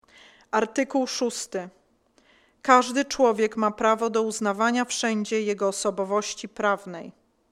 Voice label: Polish